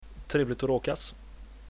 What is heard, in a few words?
meet, swedish